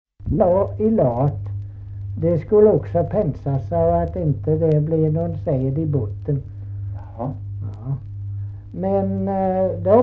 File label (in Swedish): Kristianopel, dialekter; Folkminnen